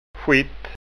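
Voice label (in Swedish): "skitt", Scanian dialect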